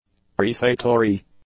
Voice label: "prefatory", english; adjectives

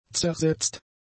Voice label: "zersetzt", German; adjectives

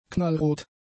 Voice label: "knallrot", German; adjectives

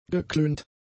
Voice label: "geklönt", German; adjectives

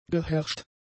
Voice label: "geherrscht", German; adjectives

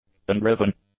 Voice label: "undriven", English; adjectives

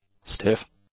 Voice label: "stiff", English; adjectives

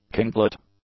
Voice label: "kinglet", English; nouns

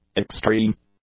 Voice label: "extreme", english; adjectives

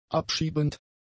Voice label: "abschiebend", German; adjectives

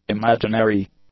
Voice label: "imaginary", English; adjectives

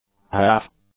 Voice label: "half", English; adjectives

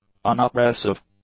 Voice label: "unoppressive", english; adjectives

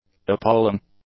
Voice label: "appalling", English; adjectives

